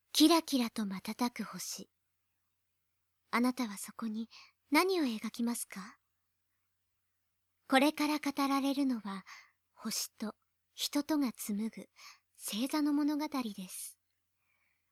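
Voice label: sample narration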